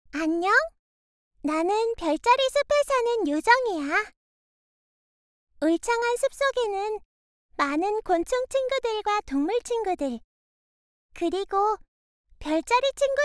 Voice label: foreigner